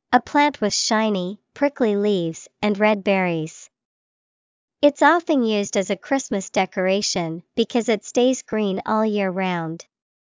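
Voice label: holly, English vocabulary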